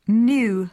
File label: "new" GB; poitiers